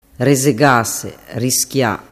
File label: "resegase rischia", dialettu